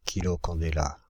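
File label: "kilocandela", French, Paris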